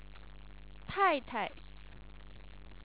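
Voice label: "taitai", Chinese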